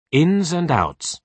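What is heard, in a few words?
"ins and outs", British English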